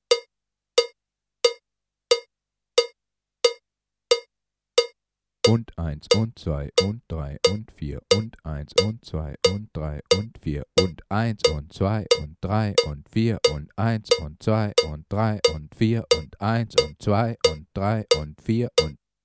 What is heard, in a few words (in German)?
Einzaehlen Offbeat Metronom (deutsch)